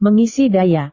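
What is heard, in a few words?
"mengisi daya", Indonesian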